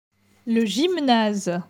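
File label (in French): "Le gymnase", French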